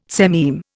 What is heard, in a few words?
"sememe", Indian